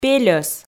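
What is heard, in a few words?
"пельӧс", Komi, female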